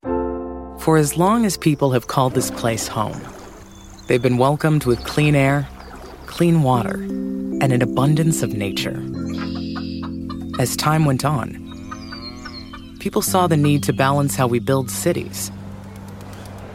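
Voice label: Explainer calm inviting smooth asmr human welcoming kind bright soothing, Female Voice Over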